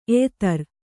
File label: "ಎಯಿತರು", Kannada